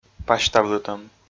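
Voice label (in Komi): "пасьтавлытӧм", Komi, male; audio dict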